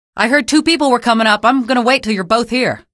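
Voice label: citizen two people, female; vo; npc; source engine; episodic; episode 1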